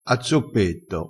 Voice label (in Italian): "a zoppetto", Crespinese